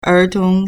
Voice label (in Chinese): "er2tong2", Chinese